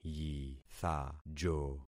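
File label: "yithajo", concatenated syllables; Affixing experiment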